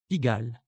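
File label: "Pigalh", Occitan Languedocien; Prêts pour l’Òc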